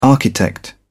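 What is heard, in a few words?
"architect", English, British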